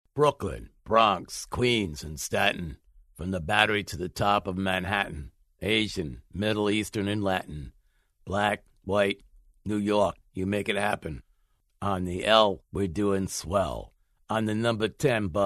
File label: NEW YORK TOUGH GUY ACCENT